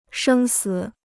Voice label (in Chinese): "生死", Chinese